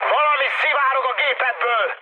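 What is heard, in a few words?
Hungarian tank damaged mood high, speech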